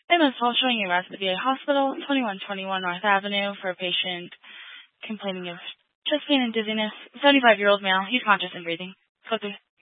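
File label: Fire Dispatch, Scanner audio recording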